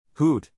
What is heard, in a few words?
"hoot", owl, neural; animal sounds in english; Owls; Stephen